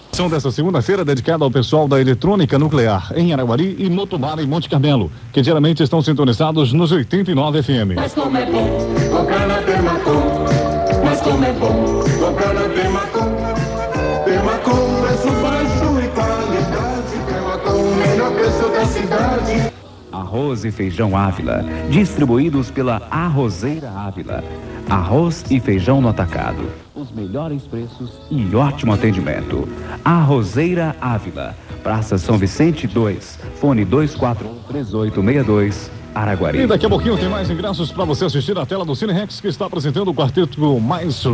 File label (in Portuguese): comercial; Alvorada FM Araguari MG